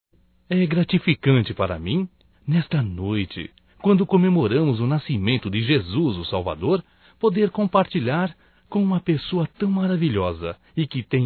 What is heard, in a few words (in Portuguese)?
Irmã Masculino; Natal e ano novo; Telemensagens 2017